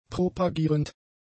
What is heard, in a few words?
"propagierend", German; adjectives